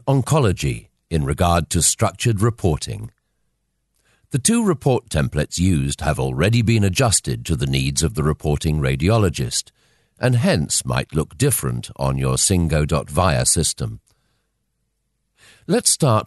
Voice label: E Learning and explainers